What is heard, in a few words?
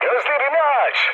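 Turkish player friendly fire, mood med, speech; War Thunder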